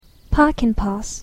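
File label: "parking pass", English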